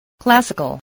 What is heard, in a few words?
"classical", spelling